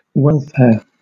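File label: "welfare", British English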